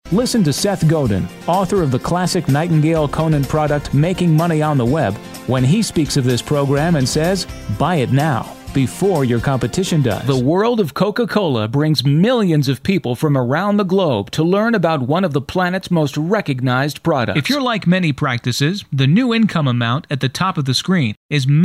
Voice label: industrials